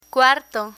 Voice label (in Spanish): "cuarto", Spanish; real estate vocabulary; learn spanish